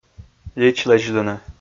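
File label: "личлэдзлыны", Komi, male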